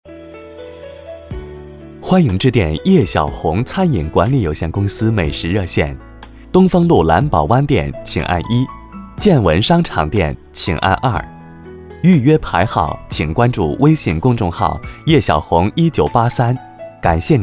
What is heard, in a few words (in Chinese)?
男, 中文, 彩铃类, 餐饮企业